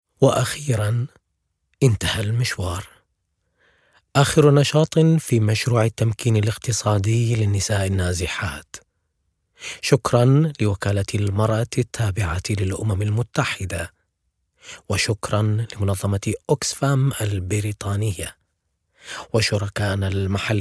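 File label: ara m informative, Arabic male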